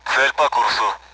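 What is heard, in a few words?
Speech Sound Russian Common AWACS; target in front; DCS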